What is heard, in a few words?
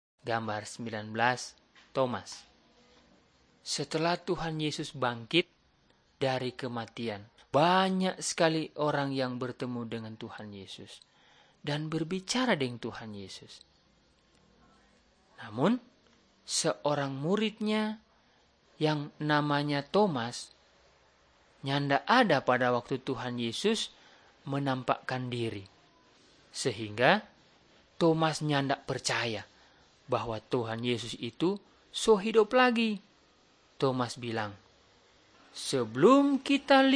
malay manado; good news